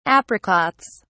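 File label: "apricots", British English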